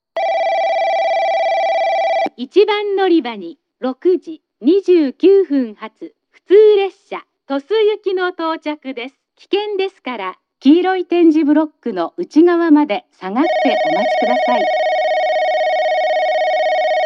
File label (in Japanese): announce; jrkyushu; tosu